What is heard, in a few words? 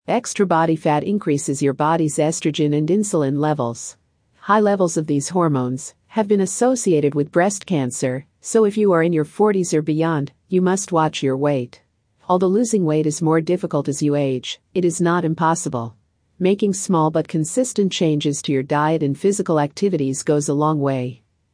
Female; Breast Health